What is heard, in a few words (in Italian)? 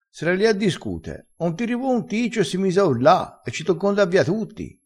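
"ticcio", dialettale, crespinese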